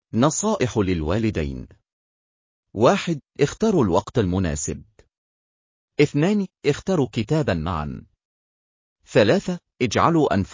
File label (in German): Vorlesetipps arabisch